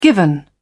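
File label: "given", English, British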